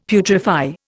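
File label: "putrefy", Indian English; Dictionary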